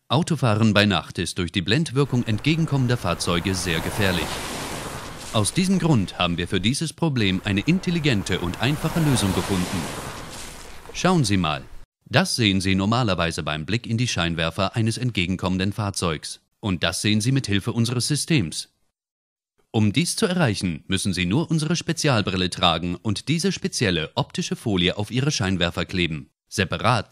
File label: voiceover crowd funding campagne glasses; dengraf glasses; dengraf ltd.